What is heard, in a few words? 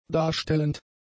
"darstellend", German; adjectives